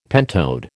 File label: "pentode", American